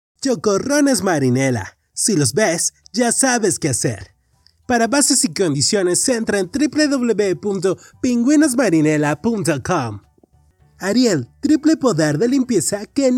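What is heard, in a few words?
Spanish Latin American, Male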